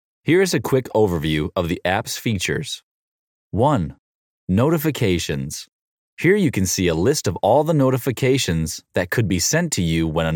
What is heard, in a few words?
explainer